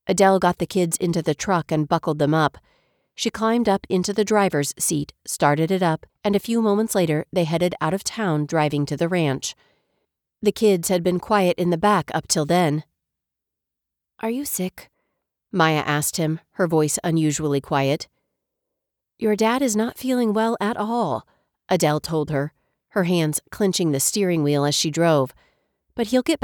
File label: Christian Fiction M F dialogue, Feminine Voices; Feminine Voices of the Legion